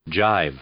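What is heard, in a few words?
"jive", English; noun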